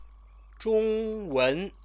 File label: "zhongwen", Chinese